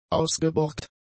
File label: "ausgeborgt", German; adjectives